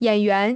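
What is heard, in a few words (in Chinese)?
"演员" Chinese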